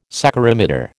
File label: "saccharimeter", American